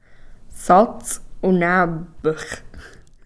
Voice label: "satls'unapx", straight